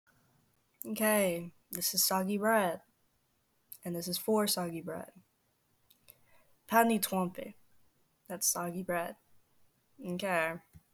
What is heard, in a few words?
speak French; Upvote me If you wanna hear me speak French